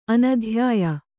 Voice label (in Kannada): "ಅನಧ್ಯಾಯ", Kannada